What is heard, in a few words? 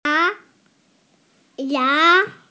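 "ya2", Mandarin Chinese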